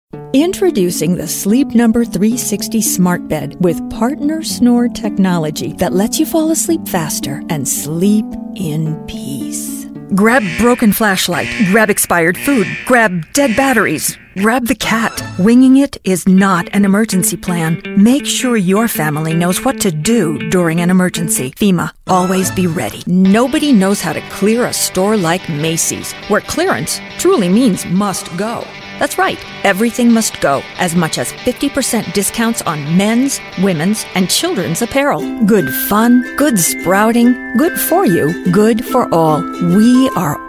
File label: Commercial, en-NZ